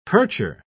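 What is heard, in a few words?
"percher", British English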